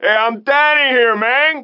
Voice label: 拉丁裔海滩中年男性; 罪恶都市; GTAVC

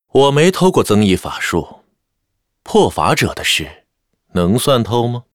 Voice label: Spellbreaker pissed, zh

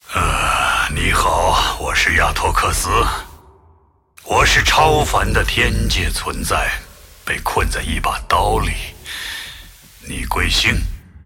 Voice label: joke, zh

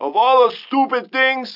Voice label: 拉丁裔海滩中年男性; 罪恶都市

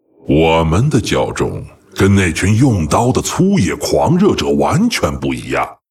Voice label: pissed, zh; Varimathras

